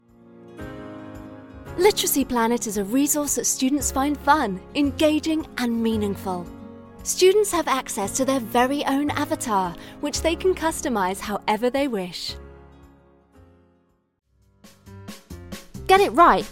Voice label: Corporate